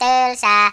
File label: "boring", Finnish; Worms Armageddon